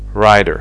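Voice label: "rider", English; fonetica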